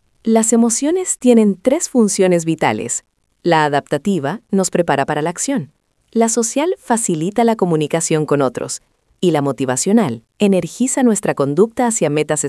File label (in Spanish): locucion